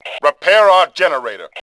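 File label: repair generator, Male; Tribes2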